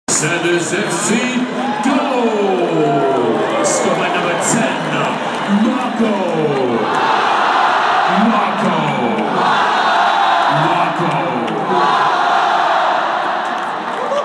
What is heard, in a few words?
ground announcer states who scored the goal; at soundersfc